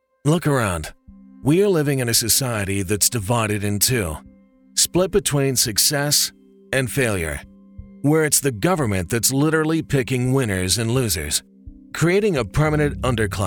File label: political men